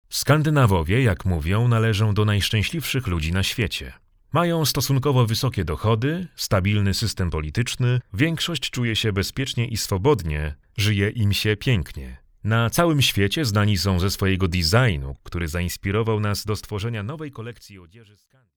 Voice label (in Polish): Polish documentaries